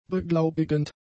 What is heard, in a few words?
"beglaubigend", German; adjectives